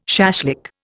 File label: "shashlik", Indian